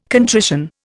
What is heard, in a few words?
"contrition", Indian